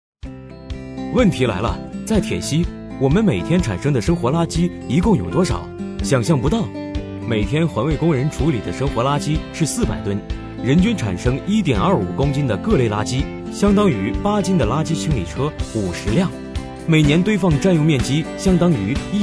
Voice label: 男声 课件 轻松讲解

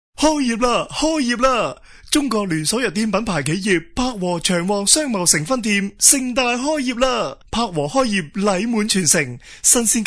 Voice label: 男声 粤语促销

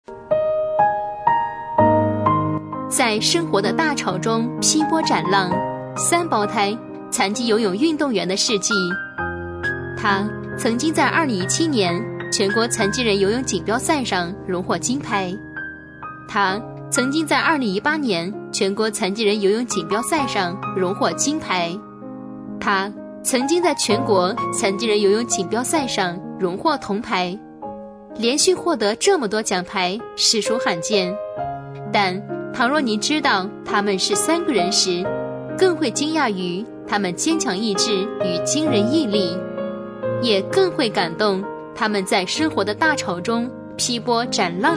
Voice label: 女声 人物介绍 三胞胎的事迹

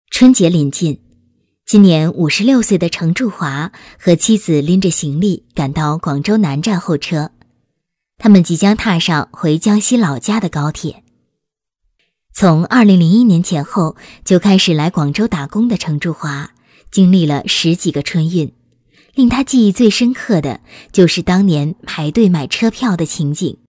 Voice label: 女声 最美基层干部

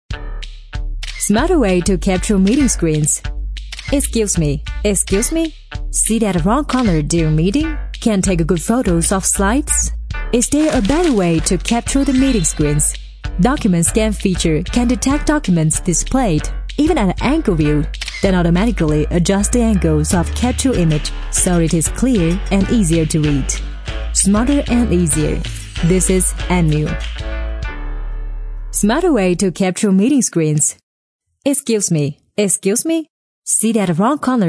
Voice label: 女声英文; 华为

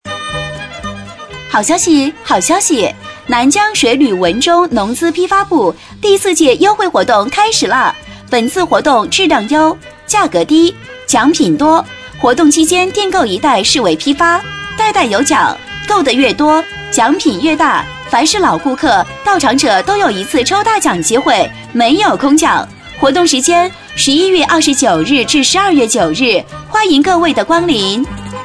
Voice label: 女声南江水活动

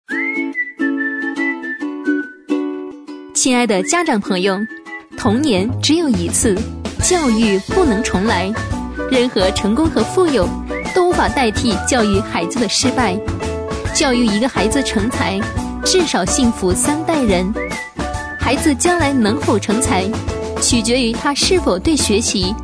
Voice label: 女声 专题 幼儿园 （柔美青春）

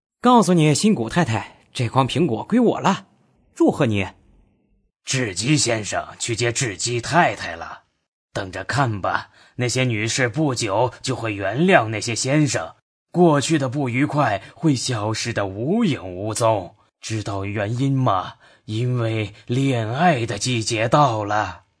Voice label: 男声 译制片 坏人角色

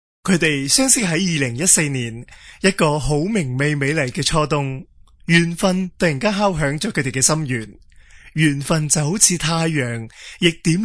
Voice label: 男声粤语婚礼专题

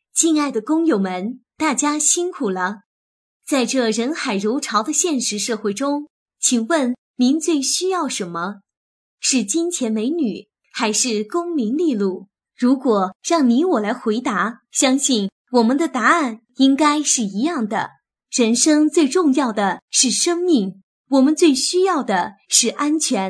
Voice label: 女声工伤预防宣传广播

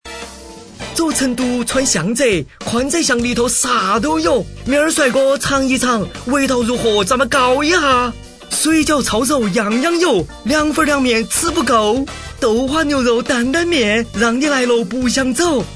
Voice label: 男声四川话配宽窄巷小吃成都话

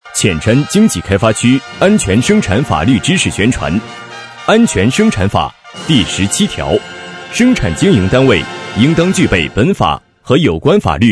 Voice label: 男声党建

